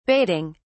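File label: "baiting", British English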